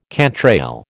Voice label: "cantrail", American